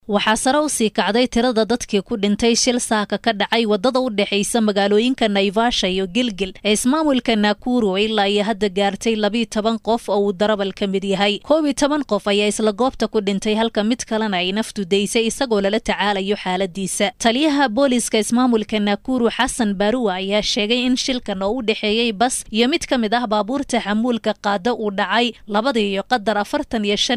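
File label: Somali, voice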